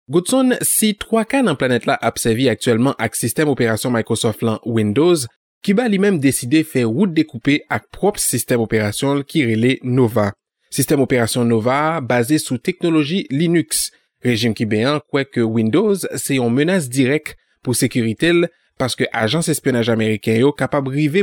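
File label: Haitian Creole French, male, voice over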